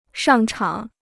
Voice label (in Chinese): "上场", Chinese